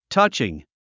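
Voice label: "touching", English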